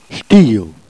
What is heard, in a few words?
"chtile", jerriais